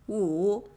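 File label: "Wu", five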